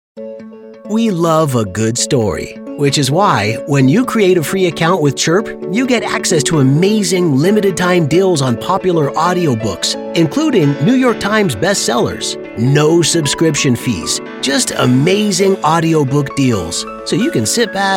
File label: Corporate